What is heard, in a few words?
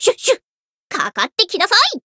chant, jp; urania